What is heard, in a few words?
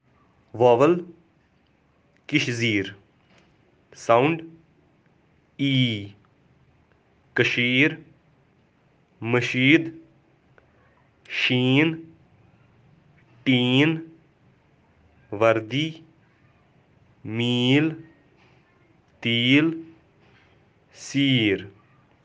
Vowel, Kashmiri vowels, Kashmiri; Kashmiri dictionary; learn kashmiri